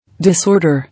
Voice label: "Disorder", English